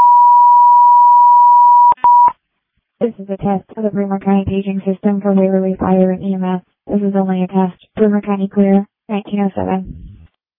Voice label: Waverly Fire, dispatch